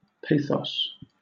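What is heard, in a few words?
"pathos", British English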